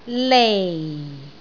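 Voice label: "lei4", Cantonese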